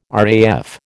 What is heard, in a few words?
"RAF", American